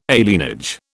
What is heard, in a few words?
"alienage", British